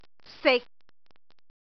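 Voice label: "sik6", Cantonese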